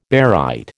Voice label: "barite", American

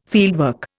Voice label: "fieldwork", Indian

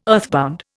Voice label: "earthbound", Indian

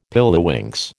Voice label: "pilliwinks", American

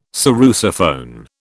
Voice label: "sarrusophone", British

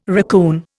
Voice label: "racoon", Indian

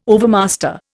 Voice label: "overmaster", Indian

